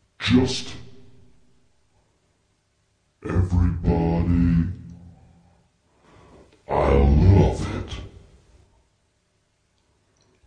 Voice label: silly lo pitched silly talk, male; MALE MISC

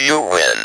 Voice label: speak and spell you win

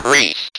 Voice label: "priest", speak and spell; WORDS

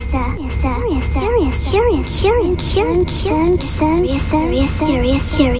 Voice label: curiouser chopped up, children

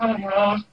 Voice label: jibjab, Lo-Fi Jibber Jabber

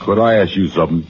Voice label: could i ask you sumthin, MALE SPOKEN WORD; MALE MISC

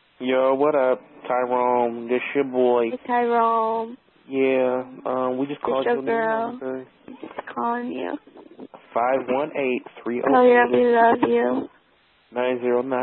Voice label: phone message rambling friends; Voicemail Messages

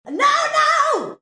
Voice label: diva no no, cheesy divas

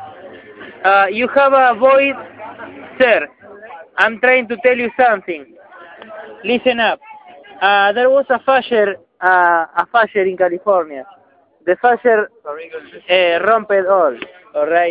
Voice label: internet connectivity problem, MALE SPOKEN WORD; MALE MISC

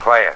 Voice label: "play it", Voice; single hits

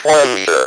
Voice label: "plunger", speak and spell; WORDS

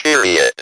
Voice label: speak and spell "PERIOD"; WORDS

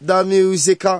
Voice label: the musical, Rastafarian

